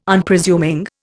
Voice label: "Unpresuming", Indian

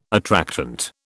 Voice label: "attractant", British

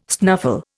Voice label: "snuffle", Indian

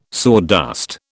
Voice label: "sawdust", British

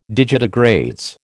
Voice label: "digitigrades", American

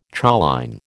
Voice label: "choline", American

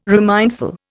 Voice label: "remindful", Indian

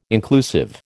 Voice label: "inclusive", American

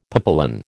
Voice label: "papillon", American

